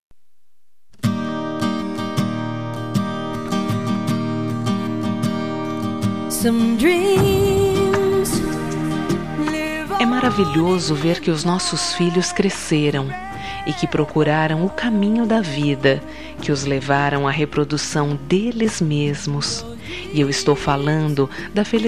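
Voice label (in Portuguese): Aniversário de Neto, female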